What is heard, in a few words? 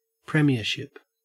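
"premiership", Australian English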